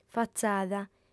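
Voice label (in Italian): "fatzada", lingua sarda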